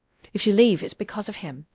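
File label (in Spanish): Voice Acting, es, female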